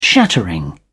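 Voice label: "shattering", British English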